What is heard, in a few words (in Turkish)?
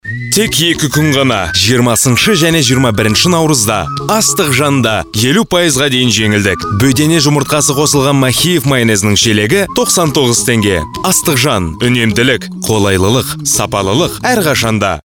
Kazakca Erkek, Kazakça Seslendirme